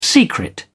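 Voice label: "secret", British English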